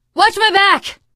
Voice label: cover me, teengirl; survivor voice

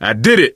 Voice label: misc directional, coach, survivor voice

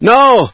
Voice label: "nooo", scientist; Source engine

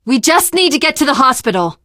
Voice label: world hospital generic, teen girl; survivor voice

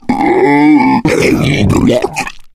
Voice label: male boomer alert; player; voice; source engine; left 4 dead 2

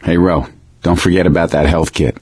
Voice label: suggest health, survivor voice

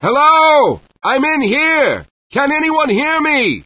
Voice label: inside car; Source Engine; bshift; rosenberg

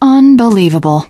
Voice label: opening door, teengirl; Left 4 Dead 2; Source Engine; video games

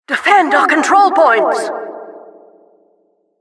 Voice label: announcer cap incite

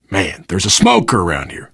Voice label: heard smoker, player survivor voice biker

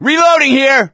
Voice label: reload intense, gambler, survivor voice; source engine; left 4 dead 2

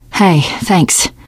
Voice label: thanks, teen girl; source engine; left 4 dead 2; survivor

